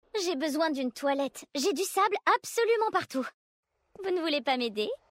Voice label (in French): VF Vulperin Female Flirt; Toilettes